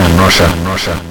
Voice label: EMOTION VOX; AKAI; SPEAKS KIT

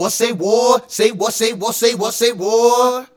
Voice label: Male Vocal; Sonic Foundry (Sony Creative Software); Mac Money

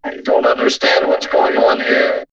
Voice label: Vocoder Understand, robot vox; X-Static Goldmine

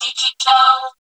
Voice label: DIGITAL, VOCODA SPEECH; Zero-G Ambient 1

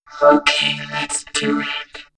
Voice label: Vocoder OK Lets Do It; X-Static Goldmine

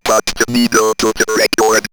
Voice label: Robot Put D Needle To, robot vox; X-Static Goldmine

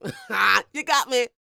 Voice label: DIALOG, R&B Dance, FEM. SPOKEN; Spectrasonics Vocal Planet

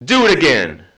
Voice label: Male FX Vocals; Houseworx

